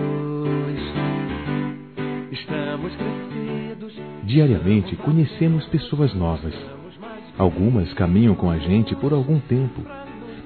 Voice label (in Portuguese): ANO NOVO P AMIGO(A), masc; Telemensagens; Natal e Ano novo